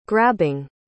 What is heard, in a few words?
"grabbing", pronounce